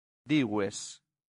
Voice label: "dives", latin; santillana

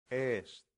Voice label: "est", Latin; santillana

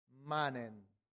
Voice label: "manent", latin; santillana

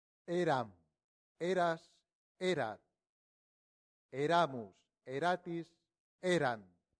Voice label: eram, latin; santillana

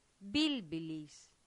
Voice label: "bilbilis", latin; santillana

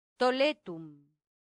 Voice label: "toletum", latin; santillana

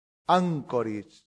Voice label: "ancoris", latin; santillana

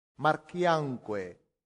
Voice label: "marciamque", latin; santillana

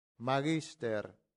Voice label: "magister", latin; santillana

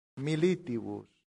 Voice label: "militibus", latin; santillana

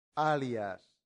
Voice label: "alias", Latin; santillana

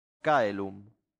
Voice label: "caelum", latin; santillana

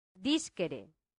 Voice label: "discere", latin; santillana

